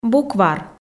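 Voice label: "буквар", Komi, female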